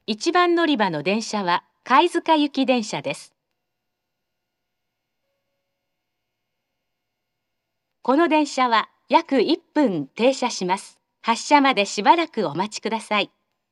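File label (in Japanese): 停車中-貝塚行（当駅始発／約1分停車）, 1番のりば放送; 福岡市地下鉄 空港線・箱崎線 中洲川端駅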